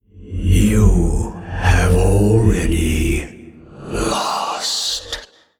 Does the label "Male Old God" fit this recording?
Yes